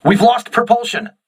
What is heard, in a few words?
voice message lost propulsion, crew ships english